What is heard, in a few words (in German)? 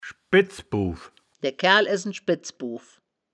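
"schbedz-bow", wittlicher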